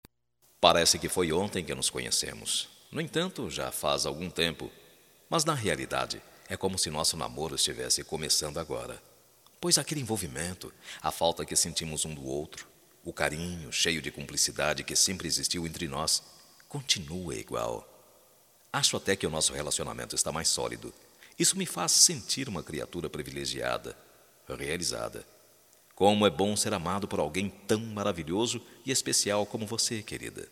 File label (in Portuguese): DECLARACAO PARA ESPOSA M, Só Voz, masc; Telemensagens; Telemensagens 2017